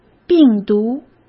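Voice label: "病毒", Mandarin Chinese; chinese-flashcards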